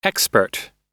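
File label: "expert", English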